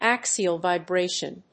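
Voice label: "axial vibration", English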